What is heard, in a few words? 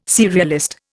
"serialist", Indian